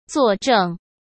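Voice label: "做证", Mandarin Chinese; chinese flashcards; hsk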